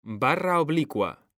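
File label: "BARRA", Spanish; mekanta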